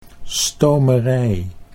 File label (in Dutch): "stomerij", Dutch